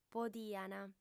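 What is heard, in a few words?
"podiant", Sardinian, female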